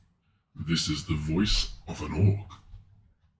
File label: orc; Blue Yeti X World of Warcraft Edition